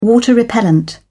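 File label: "water-repellent", British English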